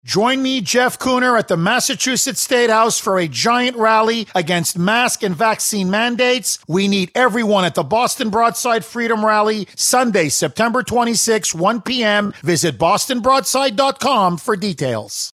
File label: RALLY AD; BROADSIDE